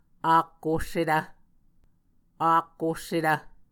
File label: "ak ossetv", Muscogee